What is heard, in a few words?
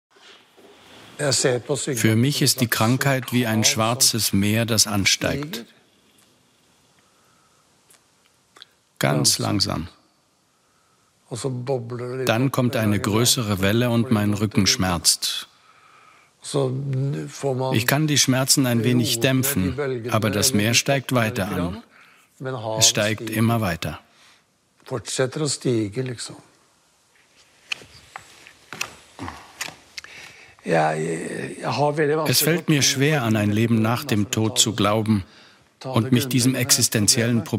voice over